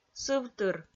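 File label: "сывтыр", Komi, female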